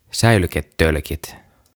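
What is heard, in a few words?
"Säilyketölkit" Finnish; Tins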